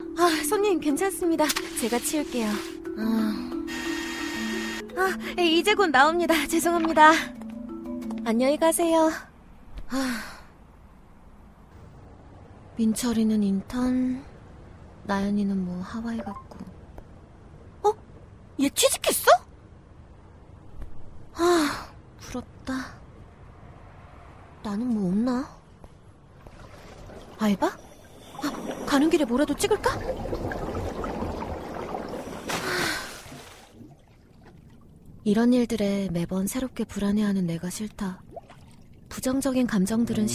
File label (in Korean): 연기 20대 평범한 일상연기 독백 일반인 친절한